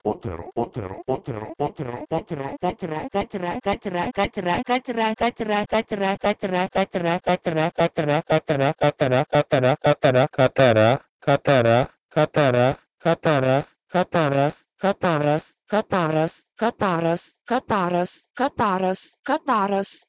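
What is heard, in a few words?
Proto-Indo-European kwotero to Lithuanian kataras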